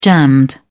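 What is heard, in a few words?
"jammed", British English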